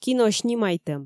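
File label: "кино снимайтӧм", Komi, female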